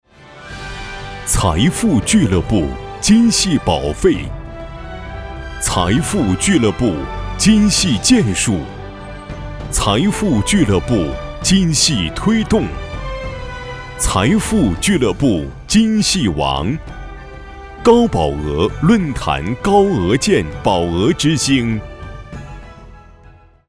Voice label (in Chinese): 男 颁奖 晚会 厚重 激动; 财富